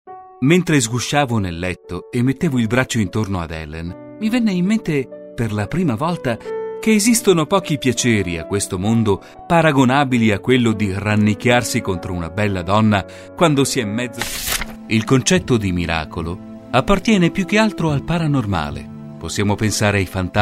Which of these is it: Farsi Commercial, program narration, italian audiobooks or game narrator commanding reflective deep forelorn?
italian audiobooks